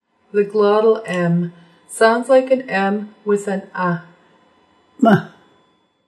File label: glottle m